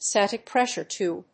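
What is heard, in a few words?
"static pressure tube", English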